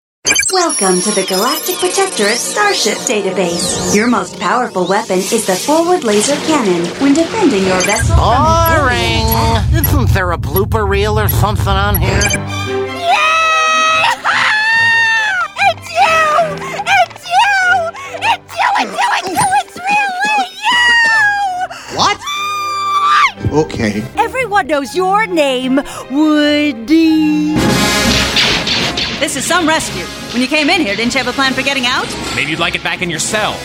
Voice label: Animation; Female voices